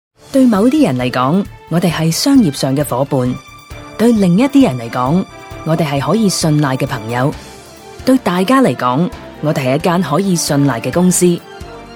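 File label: Cantonese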